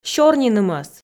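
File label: "сёрнинымӧс", Komi, female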